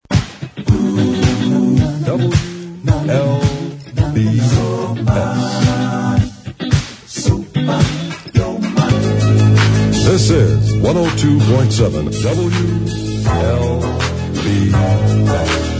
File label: WLBS FM Station ID; 102.7